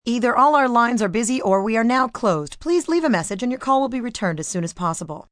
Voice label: Busy Or Closed, Voicemail, telephone messages, female